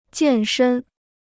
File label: "健身", Chinese